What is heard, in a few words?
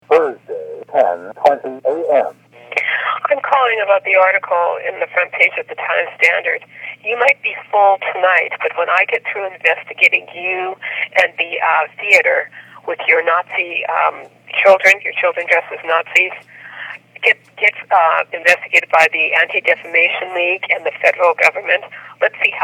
Angry caller; dinner theatre draws adulation anonymous threat